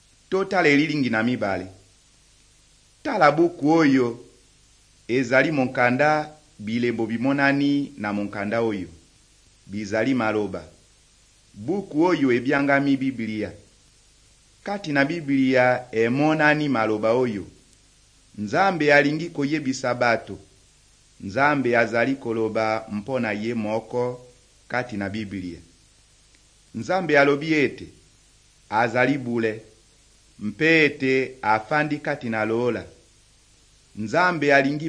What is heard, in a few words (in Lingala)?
good news lingala west, drama